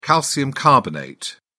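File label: "calcium carbonate", English, British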